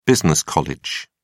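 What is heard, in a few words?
"business college", British English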